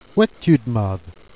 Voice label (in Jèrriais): "d'meuthes", Jèrriais